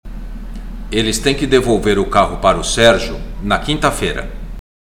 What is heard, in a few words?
Portuguese Listening; Portuguese Test